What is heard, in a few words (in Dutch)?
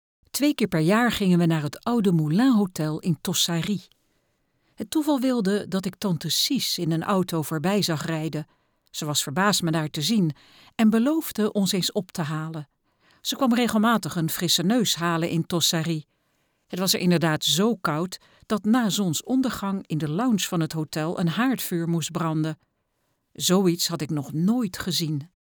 luisterboek